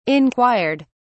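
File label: "inquired", British English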